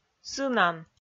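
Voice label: "сынан", Komi, female; audio dict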